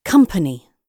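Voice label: "company", British English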